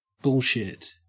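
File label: "bullshit", Australian English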